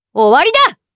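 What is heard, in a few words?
voice, Japanese; Chrisha; kingsraid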